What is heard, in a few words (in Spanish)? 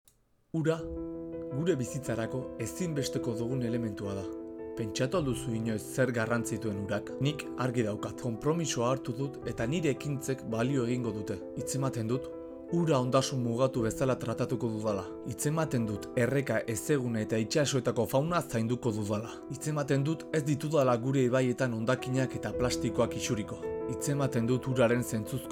Euskera Anuncio